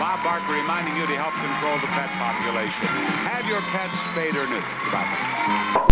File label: BARKER